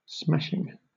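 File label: "smashing", British English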